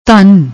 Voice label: "dhan", Punjabi; ਧਨ